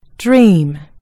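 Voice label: "dream", British English; gcompris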